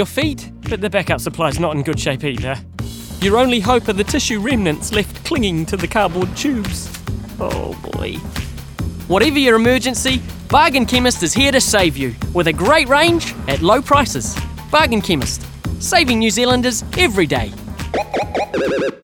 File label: radio 30 toilet paper